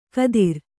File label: "ಖಾದಿರ", Kannada